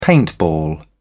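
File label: "paintball", British English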